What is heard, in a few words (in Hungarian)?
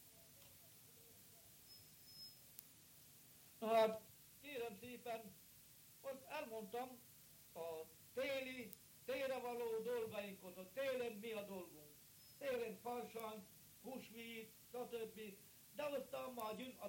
nyelvjaras gyujtesek